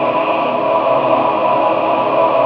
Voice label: VOX, VOCODER; VOCODER 1